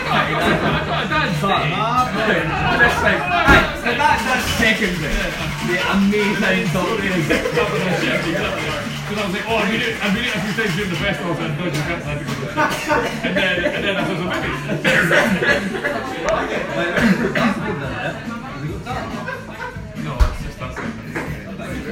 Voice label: Scottish jibber Jabber